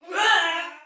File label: Attack Soldier Female, Battle Barks; Sinecure